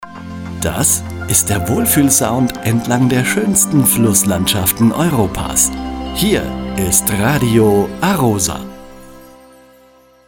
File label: Radio Station ID male; Radio A-ROSA